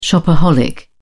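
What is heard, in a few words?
"shopaholic", British English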